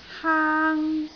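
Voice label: "haang1", Cantonese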